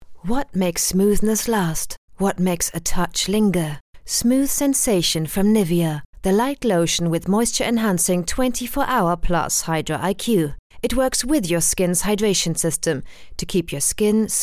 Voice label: ENG; Nivea

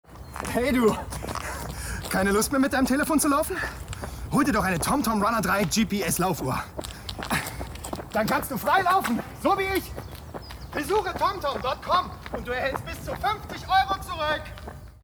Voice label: commercial spot german; tomtom

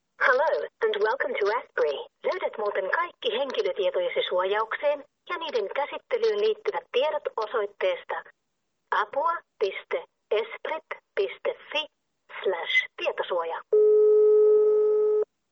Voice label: Phone; ESPRIT

